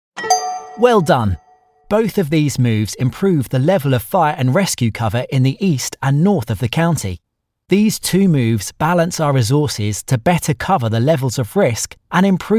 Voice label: e-learning

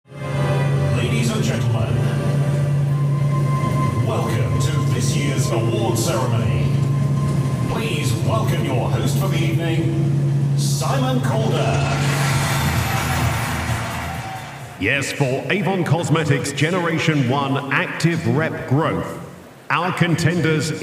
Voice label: voice of god

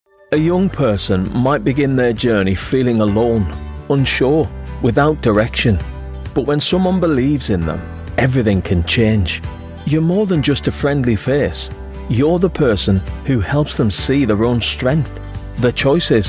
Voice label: explainer

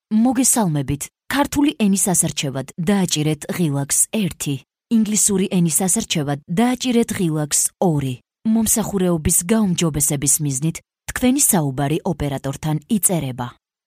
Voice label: corporate, Youthful Energetic Georgian; Georgian Female Voiceovers

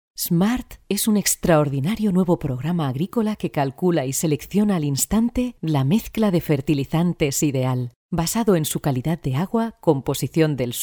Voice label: Castilian female documentary

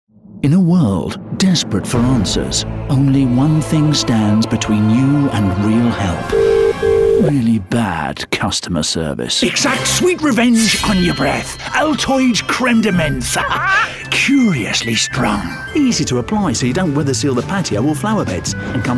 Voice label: commercial

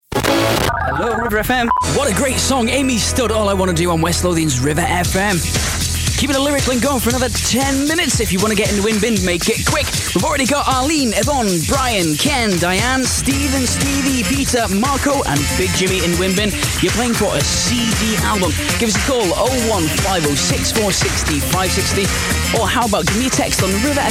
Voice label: continuity radio, voiceover

